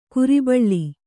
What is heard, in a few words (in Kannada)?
"ಕುರಿಬಳ್ಳಿ", Kannada